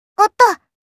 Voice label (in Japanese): "Otto", Kawaii Voice Reactions